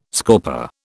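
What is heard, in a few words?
"scorper", British English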